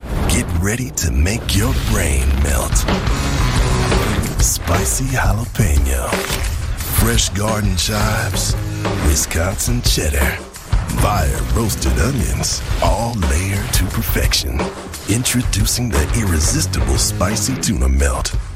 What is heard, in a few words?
Voice Talent Commercial; Commercial Demo